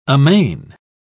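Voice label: "amain", English, British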